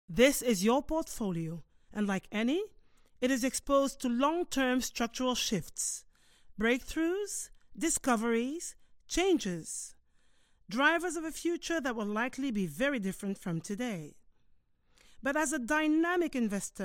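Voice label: Afrikaans English Corporate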